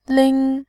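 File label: "lin1", Mandarin Chinese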